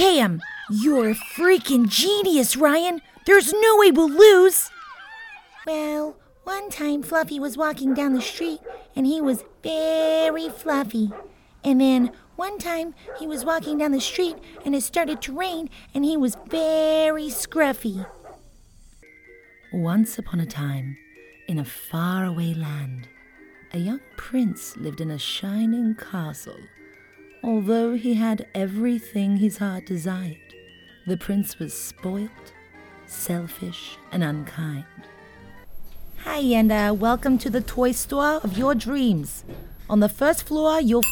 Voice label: Character